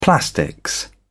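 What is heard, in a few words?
"plastics", British English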